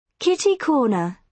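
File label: "kitty corner", British English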